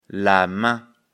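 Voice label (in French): "main", French; afrikalan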